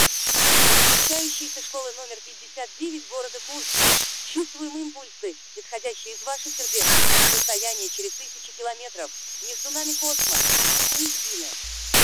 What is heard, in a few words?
female